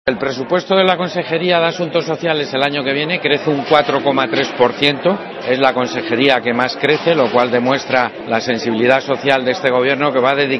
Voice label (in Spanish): noticias